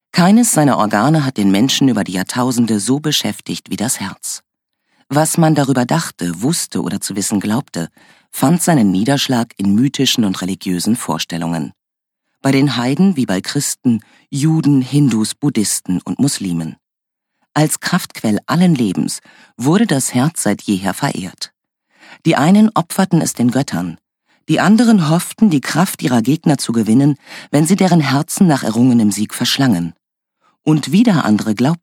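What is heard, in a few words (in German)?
hoerbuch, Sprecherin; herz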